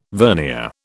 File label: "vernier", British